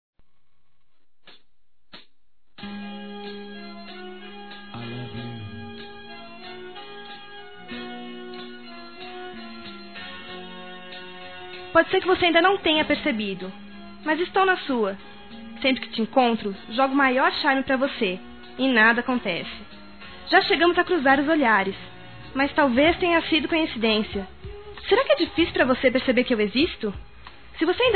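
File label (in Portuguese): Se liga-Feminino; Telemensagens; Telemensagens 2017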